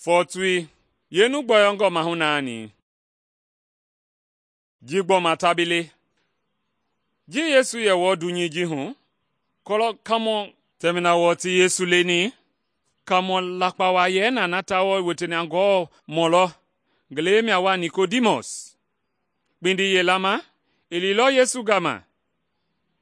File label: mende bsl nt drama; good news mende kpa